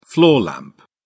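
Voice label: "floor lamp", British English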